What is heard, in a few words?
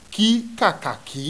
"K KAKAKI", Yoruba; ALPHABET RHYME IN BITS